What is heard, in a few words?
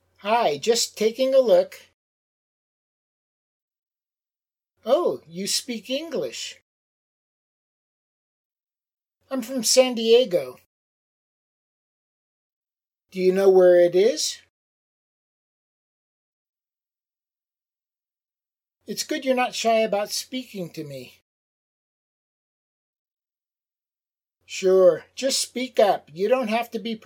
Natural Dialog A Part